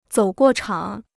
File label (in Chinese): "走过场", Chinese